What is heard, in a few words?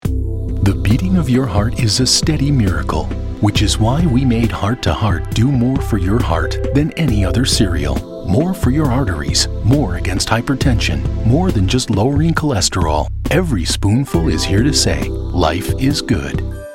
Commercial; HEART TO HEART